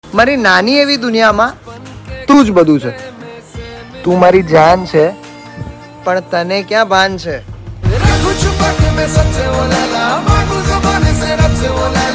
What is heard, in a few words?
gujarati